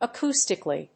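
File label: "acoustically", English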